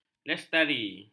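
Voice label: "lestari", Malay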